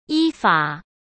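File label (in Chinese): "依法", Mandarin Chinese; hsk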